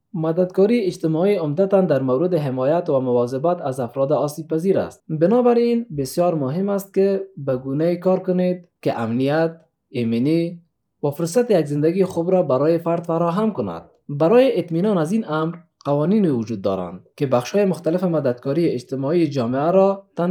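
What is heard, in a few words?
Dari male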